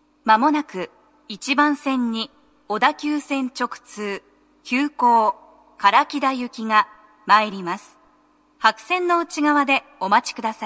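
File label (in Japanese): 接近放送, 女声, 英語の停車駅案内が付帯されていました; 放送は「急行 唐木田行」です。; tokyometro chiyodaline nogizaka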